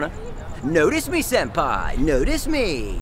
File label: weird satanist guy; notice me senpai notice me